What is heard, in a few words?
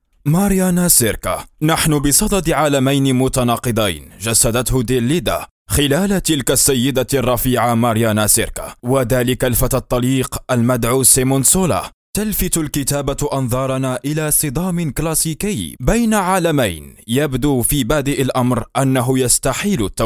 Arabic Voiceover